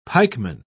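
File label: "pikeman", English, British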